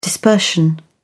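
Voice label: "dispersion", English, British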